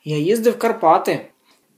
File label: dialogue, Ukrainian